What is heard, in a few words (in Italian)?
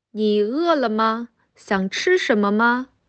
Cinese